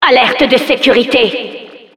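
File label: security alert, French; Announcer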